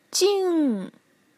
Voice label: "jing4", pinyin